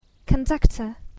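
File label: "conductor", English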